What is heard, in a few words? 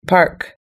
"park", us-english